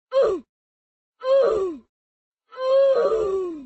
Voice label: death sounds slowing; roblox